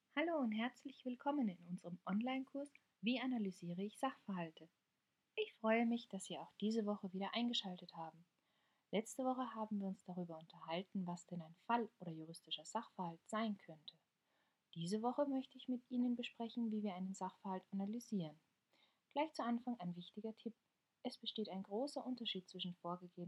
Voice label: Elearning